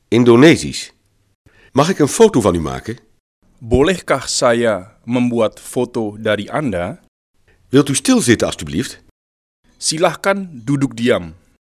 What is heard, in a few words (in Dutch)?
indonesisch; Mag ik een foto van u maken?